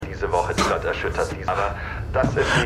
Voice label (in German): Nachrichtenmoderator